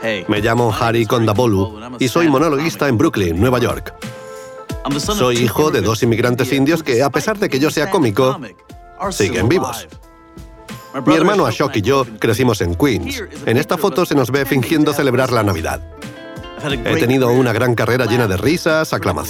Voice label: spanish documentaries

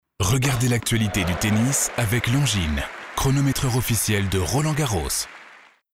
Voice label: french tv shows

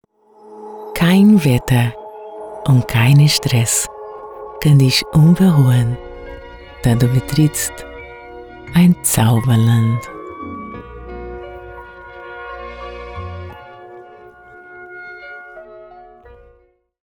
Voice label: portuguese brazilian others

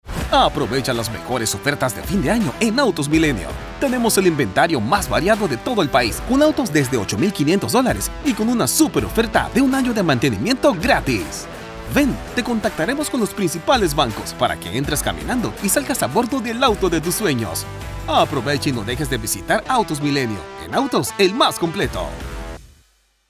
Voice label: spanish latin american automotive

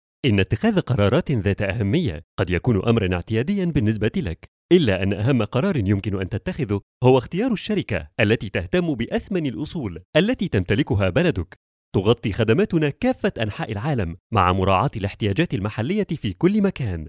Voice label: arabic commercial

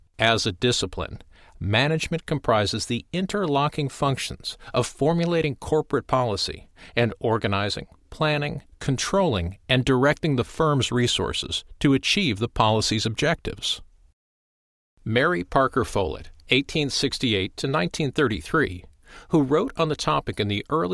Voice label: English American e-learning

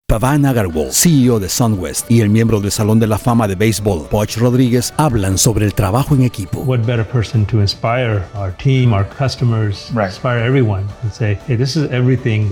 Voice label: spanish latin american tv shows

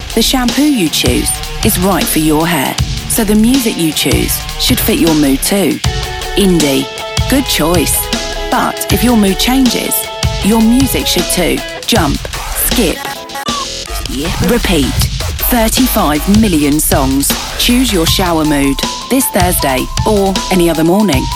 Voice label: english british commercial

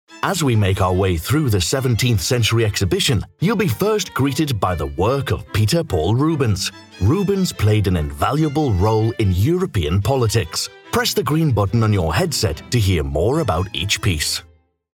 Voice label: english british explainer videos

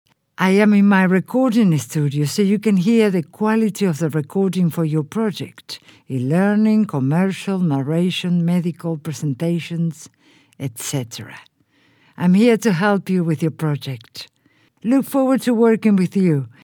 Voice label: spanish latin american explainer videos

